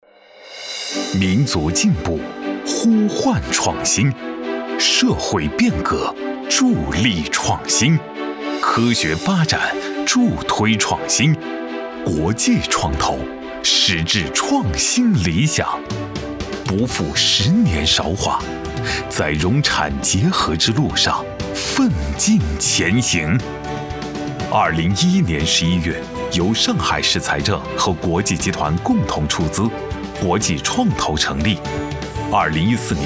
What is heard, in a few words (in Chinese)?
男 大气宣传片 企业介绍